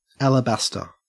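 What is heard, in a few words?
"alabaster" Australian English; pronunciations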